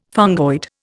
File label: "fungoid", Indian